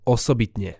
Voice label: "osobitne", slovencina